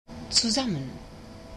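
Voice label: "zusammen", German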